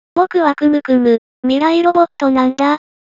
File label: AquesTalk; aquestalkandpython